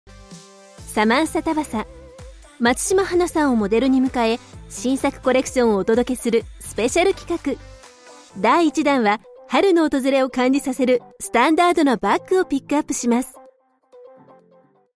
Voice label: Japanese Commercial fashion（CM ファッション 明るい）